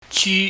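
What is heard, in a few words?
"jyu", Cantonese, high falling tone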